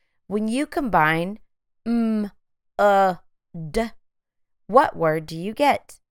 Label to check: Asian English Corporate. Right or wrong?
Wrong